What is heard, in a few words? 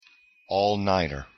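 pronunciation all-nighter, English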